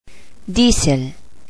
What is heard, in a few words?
"diesel", Spanish